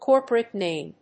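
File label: "corporate name", English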